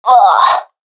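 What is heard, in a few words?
death, professional female; Counter Strike Model Pack; CS:GO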